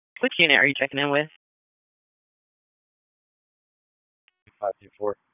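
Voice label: Raleigh Police Southeast District Dispatch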